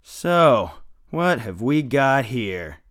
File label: mutter; Escape from Tarkov